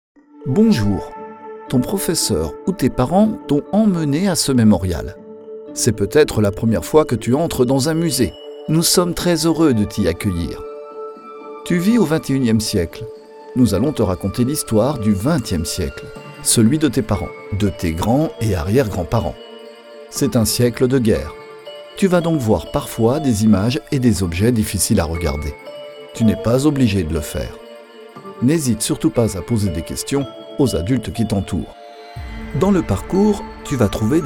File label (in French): Audioguide; Démo Audioguide; Mémorial